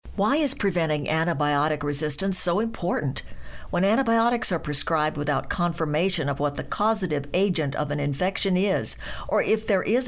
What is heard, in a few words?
Narration Medical Female, Narration, Medical Narration